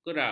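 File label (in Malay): "kera", Malay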